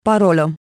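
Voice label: Romanian Password; EFI Audio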